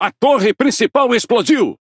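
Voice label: Speech Defective Modules Main Gun Destroyed, Brazilian Portuguese; World Of Warships; PT BR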